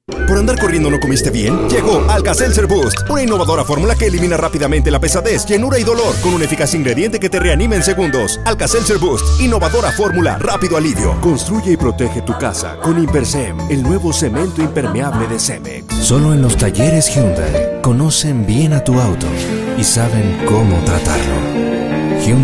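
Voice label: Latin American Spanish, General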